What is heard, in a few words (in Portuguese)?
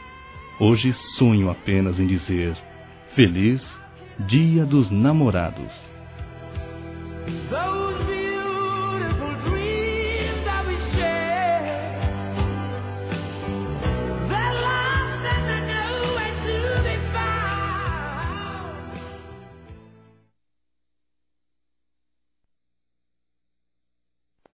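complicado caso de amor, Masculino; Telemensagens; Dia Dos namorados; Telemensagens 2017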